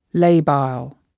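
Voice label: "labile", English word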